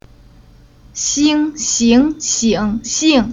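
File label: "Xing", Chinese syllable; Уроки фонетического блока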